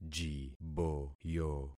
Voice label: "jiboyo", concat syllables; Affixing experiment